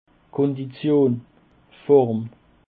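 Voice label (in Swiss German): "kondition", Alsatian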